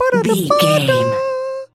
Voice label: voice fun character cute cartoon